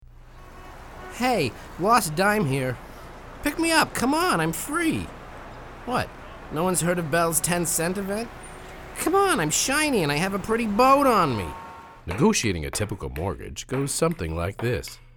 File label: commercial male